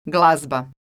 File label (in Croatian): "glazba", croatian